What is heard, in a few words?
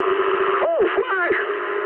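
damaged anti-aircraft mood high, speech English; War Thunder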